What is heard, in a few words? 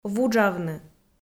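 "вуджавны", Komi, female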